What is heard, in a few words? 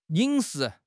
"yin3 shi2", 汉语口语; 汉语口语新时代-初级下